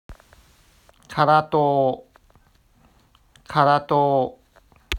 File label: Japanese Word Karatou Pronunciation